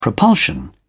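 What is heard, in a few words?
"propulsion", British English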